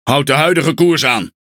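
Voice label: NL Speech Pilots Bomber Airborne, Dutch; World Of Warships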